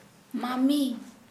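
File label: Santali, female, aunt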